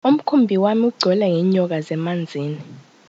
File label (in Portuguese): hovercraft zulu